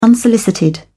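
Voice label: "unsolicited", British English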